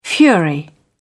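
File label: "fury", British English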